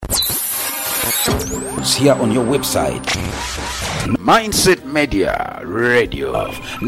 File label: RADIO jingle ENGLISH; MINDSET MEDIA